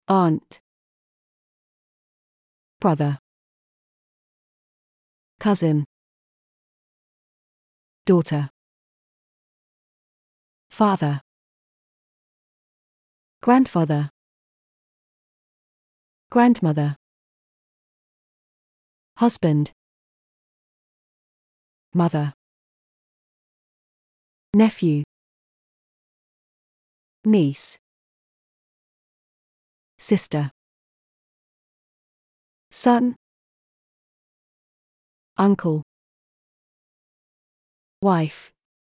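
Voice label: British; family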